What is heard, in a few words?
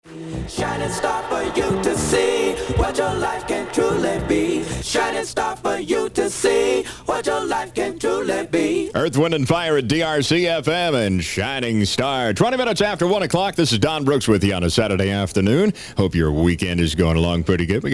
fm air check; wdrc